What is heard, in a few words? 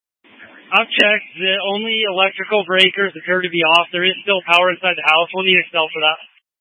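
Incident Tactical 1, Scanner audio recording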